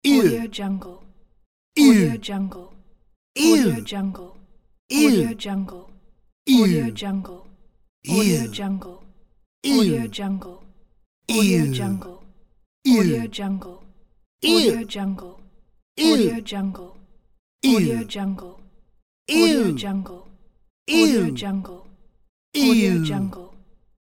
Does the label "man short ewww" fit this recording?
Yes